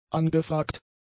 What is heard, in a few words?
"angefragt", German; adjectives